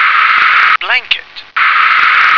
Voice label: "blanket", English